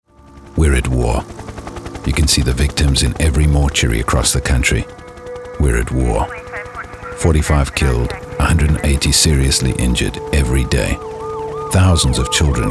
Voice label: war on speed eng

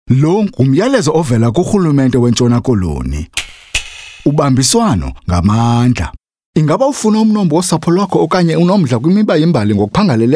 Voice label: archives billboards xhosa

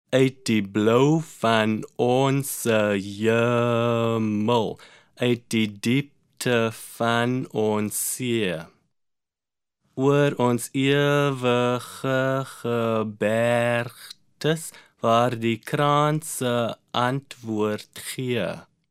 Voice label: afrikaans rhythmic pronunciation

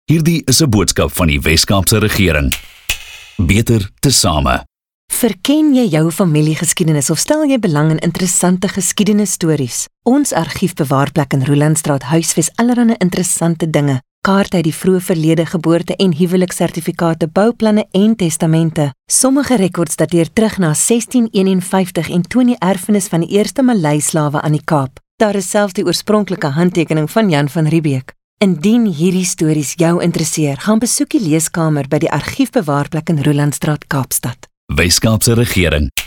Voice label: afrikaans

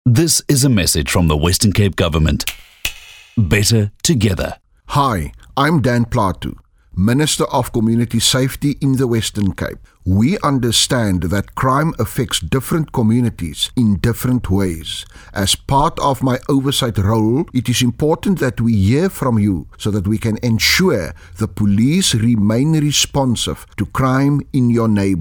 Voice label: service announcement english

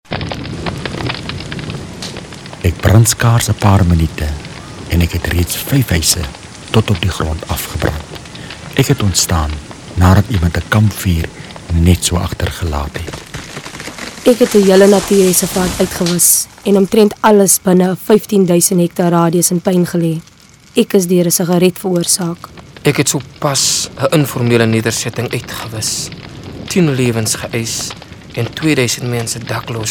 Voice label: radio advert afrikaans psa; Fire Brigade Services

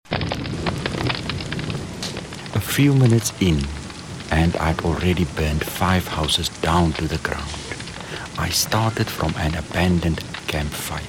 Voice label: radio advert eng psa; Fire Brigade Services

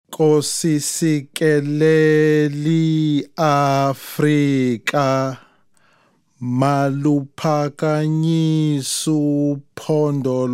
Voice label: nguni lyrics rhythmic pronunciation

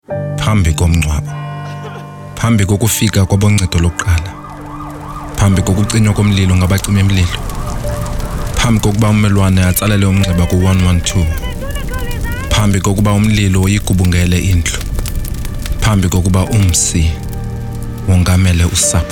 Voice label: xhosa smoke alarm

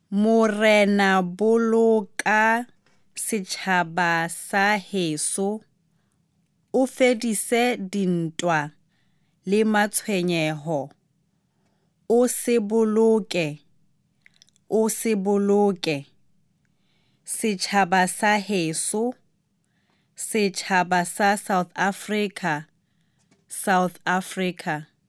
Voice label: sesotho lyrics pronunciation

